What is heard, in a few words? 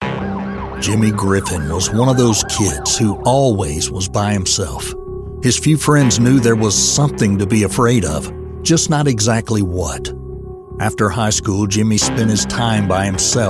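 American English, Documentary; GoLocalise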